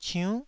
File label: "ciunn9", Taiwanese